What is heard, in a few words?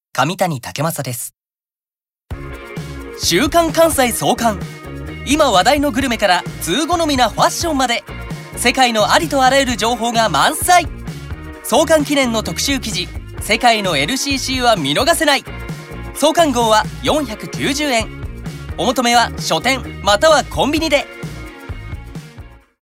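commercial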